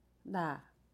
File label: "I", jeju